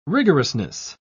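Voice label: "rigorousness", British English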